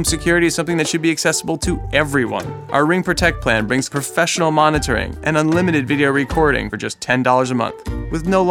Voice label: ALARM TALK; RING